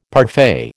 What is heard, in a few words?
"parfait", American